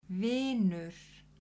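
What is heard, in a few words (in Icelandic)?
"vinur", Icelandic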